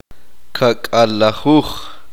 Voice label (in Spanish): "cincuenta", uspanteko